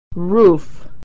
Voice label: "roof", pronunciation